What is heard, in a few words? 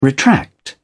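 "retract", British English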